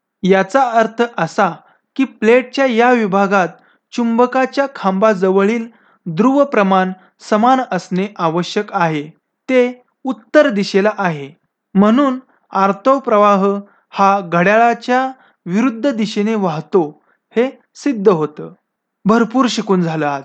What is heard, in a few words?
Marathi, Male